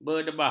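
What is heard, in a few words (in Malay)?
"bedebah", Malay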